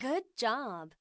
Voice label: camcorder end woman; Samsung X01SC Omnia PRO